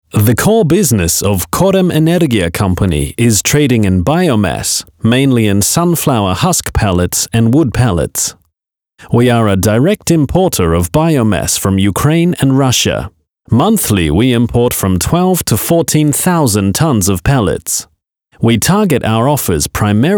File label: corporate narration prezentacja firmy